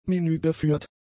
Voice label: "menügeführt", German; adjectives

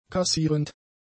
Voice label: "kassierend", German; adjectives

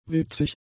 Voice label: "witzig", German; adjectives

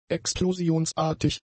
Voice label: "explosionsartig", German; adjectives

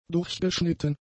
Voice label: "durchgeschnitten", German; adjectives

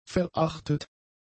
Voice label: "verachtet", German; adjectives

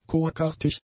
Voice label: "korkartig", German; adjectives

